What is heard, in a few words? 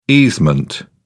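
"easement", British English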